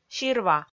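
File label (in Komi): "сирва", Komi, female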